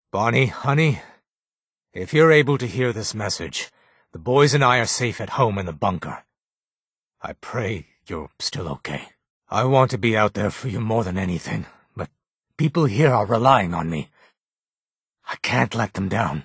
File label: Separated family radio signal; fallout